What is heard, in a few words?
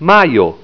"majo", esperanto